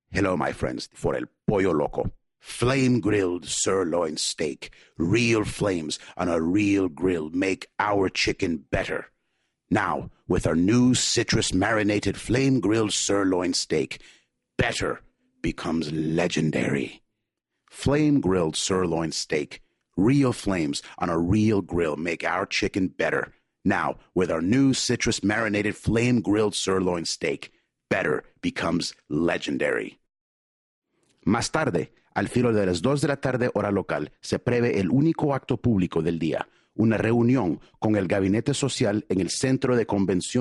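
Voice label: voice over Hispanic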